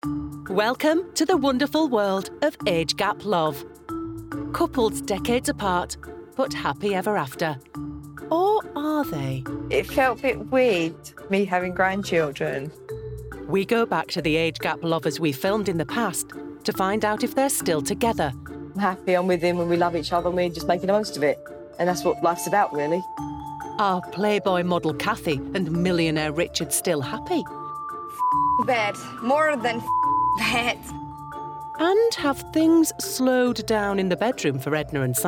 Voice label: conversational amused light; Age Gap Love Doc